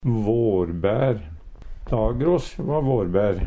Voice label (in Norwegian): varbaer, Numedalsmål; Dagros va vårbær.; Adjektiv